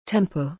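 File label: "tempo", English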